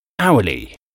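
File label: "hourly", English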